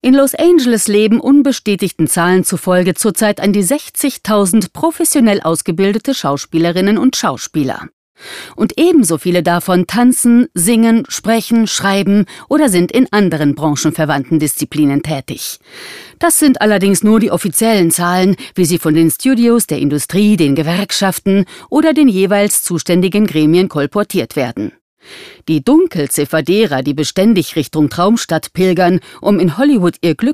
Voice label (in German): sprechprobe teaser